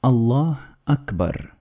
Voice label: "Allah Akbar" Arabic